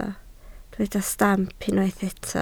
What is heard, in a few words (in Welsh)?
"stamp", Welsh